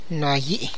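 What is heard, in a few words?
Tlacochahuaya Zapotec; jealous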